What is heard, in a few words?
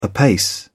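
"apace", British English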